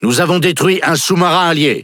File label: Speech Destroy Ally Sub, FR; World Of Warships